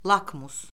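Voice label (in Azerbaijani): "lakmus", Azerbaijani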